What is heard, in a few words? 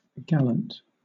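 "gallant", British English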